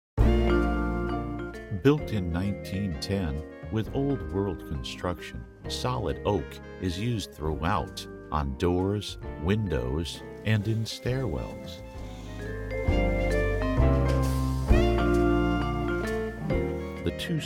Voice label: Real Estate Virtual Tour Voiceover